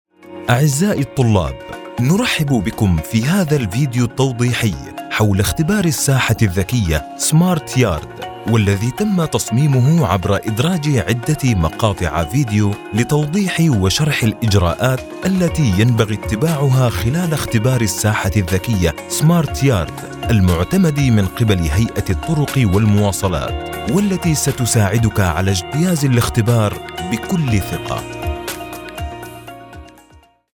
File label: E Learning Driving institute voice over